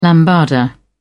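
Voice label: "lambada", British English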